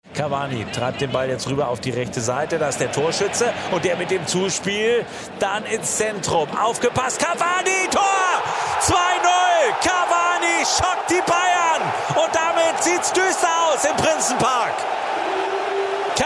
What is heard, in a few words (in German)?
Berlin Fussballkommentator